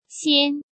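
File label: "Xian", Chinese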